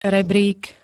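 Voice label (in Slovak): "rebrík", Slovak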